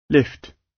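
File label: "lift", British; Design and innovation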